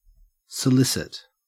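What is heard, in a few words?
"solicit", Australian English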